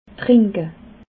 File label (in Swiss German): "trinke", alsace